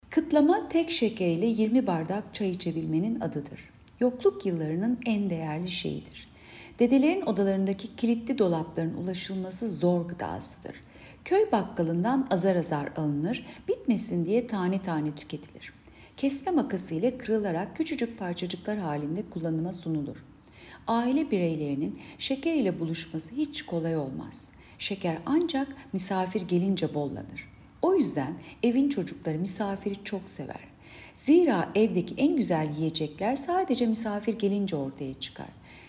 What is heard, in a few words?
turkce